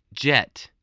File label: "jet", spelling